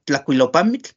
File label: "Tlajkuilopamitl", Náhuatl; grafías en lengua Náhuatl